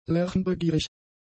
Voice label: "lernbegierig", German; adjectives

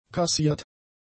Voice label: "kassiert", German; adjectives

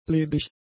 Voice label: "ledig", German; adjectives

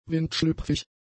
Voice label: "windschlüpfig", German; adjectives

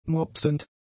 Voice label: "mopsend", German; adjectives

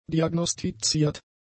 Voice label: "diagnostiziert", german; adjectives

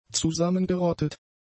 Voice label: "zusammengerottet", German; adjectives

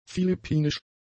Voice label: "philippinisch", German; adjectives

